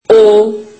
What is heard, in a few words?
turkish alphabet o